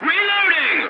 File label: voice message reloading, english; War Thunder